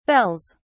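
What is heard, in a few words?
"bells", British English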